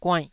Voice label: "guainnh2", Taiwanese syllable